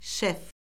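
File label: "şef", Azerbaijani; Orfoqrafiya Lüğəti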